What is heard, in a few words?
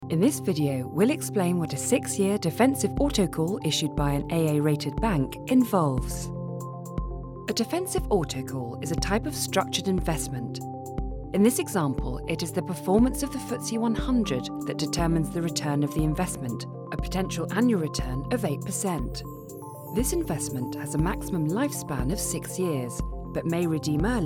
Trusted Knowledgeable; Navigate Financial Worlds